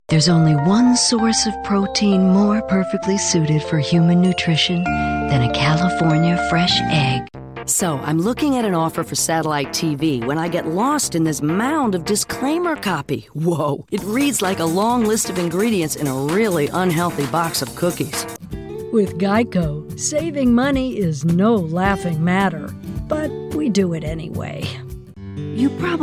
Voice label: Commercial